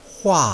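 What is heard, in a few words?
"hua", Mandarin Chinese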